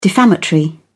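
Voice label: "defamatory", British English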